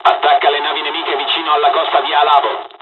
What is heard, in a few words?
attack, dialogs italian; War Thunder